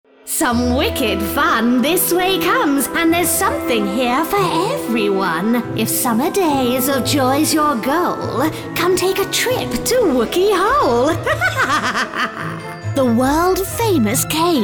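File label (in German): UK English, Geheimnisvoll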